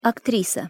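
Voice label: "aktrisa", Russian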